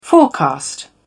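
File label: "forecast", en, uk British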